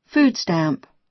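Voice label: "food stamp", American English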